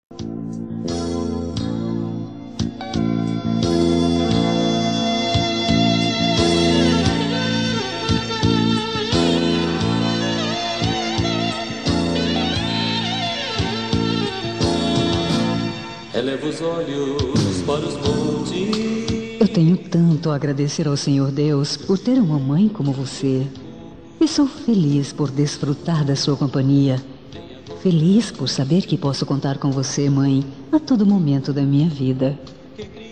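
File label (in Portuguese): Evangélica Aniversário de Mae, female, telemensagens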